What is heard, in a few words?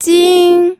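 "jing1", Mandarin Chinese first tone; pinyin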